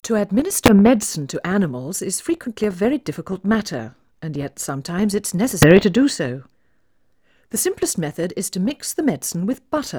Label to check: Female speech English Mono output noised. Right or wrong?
Right